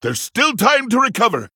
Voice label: Male Pandaren

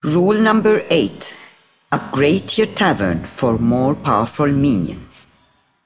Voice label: Female; Broker; After Shop Upgrade

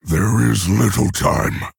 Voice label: Male Orc Time; Thrall

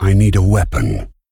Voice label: Male Dragon Emote ERROR NEED WEAPON

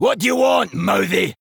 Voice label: Male Dwarf GREETINGS

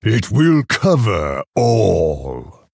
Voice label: Male Orc GREETINGS

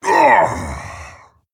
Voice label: Male Tauren Death

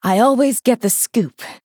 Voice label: Female Night Elf

